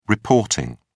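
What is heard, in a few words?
"reporting", British English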